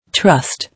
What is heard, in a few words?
"Trust", English